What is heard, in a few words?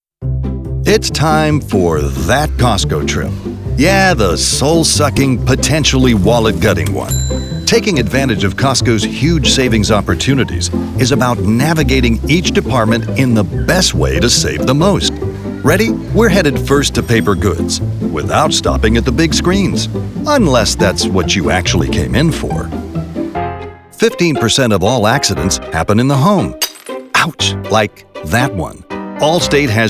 Explainer